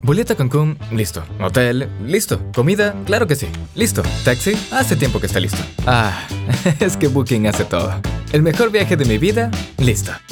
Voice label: Spanish Commercials